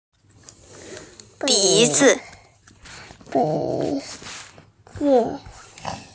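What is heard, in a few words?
"bi2zi5", Mandarin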